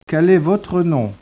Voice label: speech, French